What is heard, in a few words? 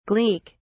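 "gleek", British English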